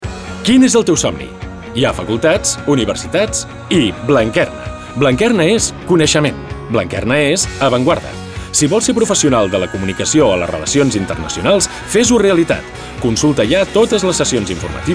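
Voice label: Catalan; generico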